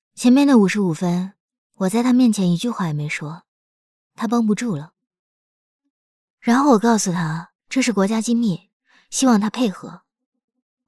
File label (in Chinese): 女 角色 影视剧 女警察